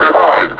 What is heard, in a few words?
"behind", suppressor; Half Life Alyx; combine